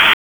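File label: Raleigh Police North District Tactical; wake; p25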